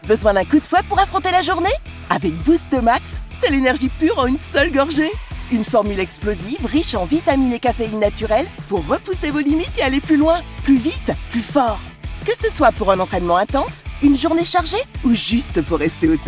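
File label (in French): pub boisson énergétique, voix off féminine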